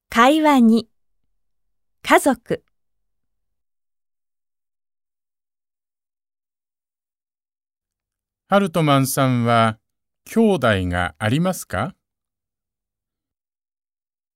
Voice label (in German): dialog; konnichiwa band 2 cd 1